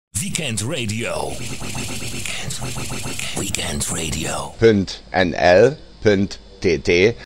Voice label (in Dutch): weekendradio Jingle tekst punt nl punt tt